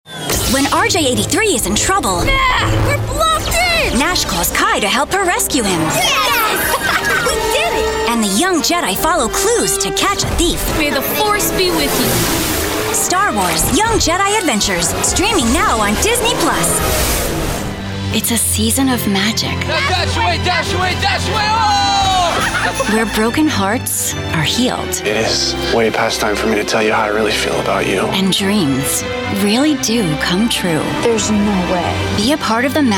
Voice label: Promo